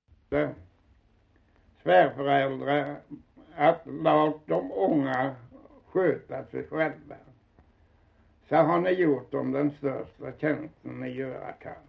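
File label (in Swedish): Osby Skåne, svenska sagor dialekter; Folkminnen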